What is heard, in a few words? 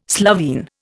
"Slovene", Indian